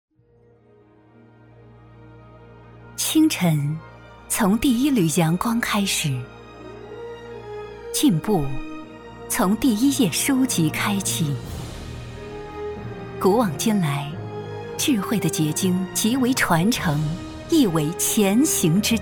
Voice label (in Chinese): 宣传片 城市 大气